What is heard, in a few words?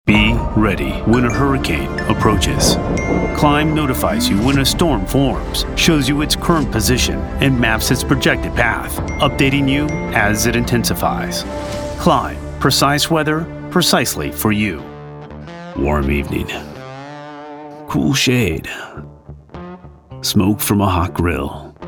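Commercial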